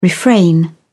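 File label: "refrain", English, uk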